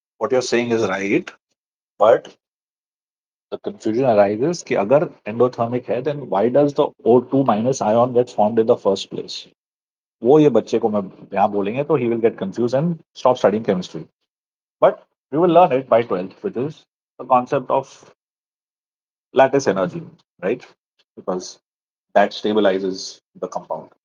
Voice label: voice message